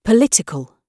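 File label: "political", British English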